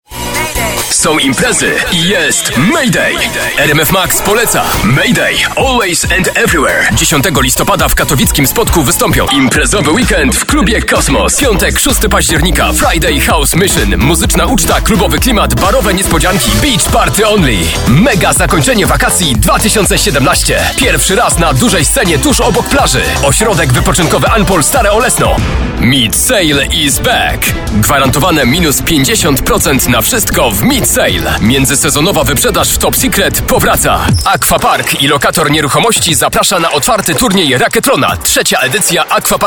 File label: commercial